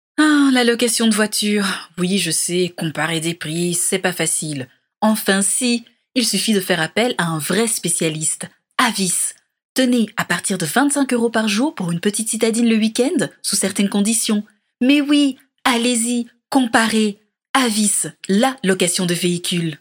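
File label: French, female, various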